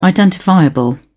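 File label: "identifiable", British English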